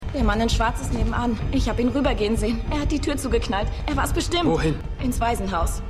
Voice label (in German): Junge Nonne